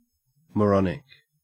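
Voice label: "moronic", Australian English; pronunciations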